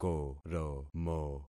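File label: "koromo", concatenated syllables; Affixing experiment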